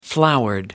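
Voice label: "flowered", British English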